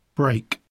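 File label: "break", English; poitiers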